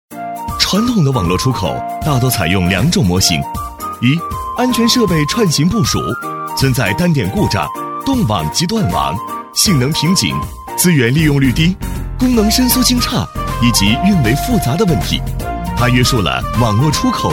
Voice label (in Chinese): 男 MG飞碟 轻松